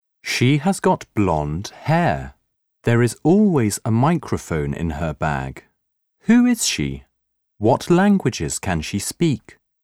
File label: EN; ADAM